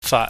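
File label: "faat", Cantonese, mid level tone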